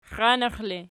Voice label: xianaxi erg, archi

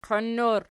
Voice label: "qoin", Archi

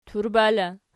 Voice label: "turba" in, Archi

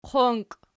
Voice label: "qonki", Archi

